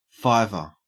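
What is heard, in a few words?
"fiver", Australian English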